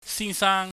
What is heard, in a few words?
"sinsaang", Cantonese